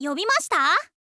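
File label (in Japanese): "yobimashita", girl, Japanese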